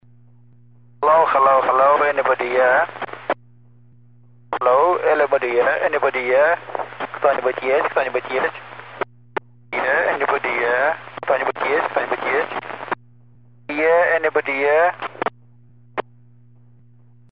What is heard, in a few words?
Broken English